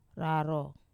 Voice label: "raro", inside, Wamesa